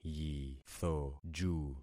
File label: "yithoju", concat syllables; Affixing experiment